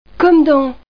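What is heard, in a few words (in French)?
"comme", French; Reperages 94; jeuvocab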